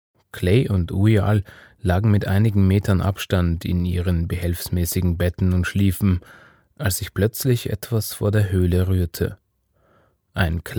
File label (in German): Hörbuch